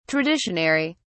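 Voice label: "traditionary", British English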